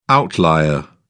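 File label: "outlier", British English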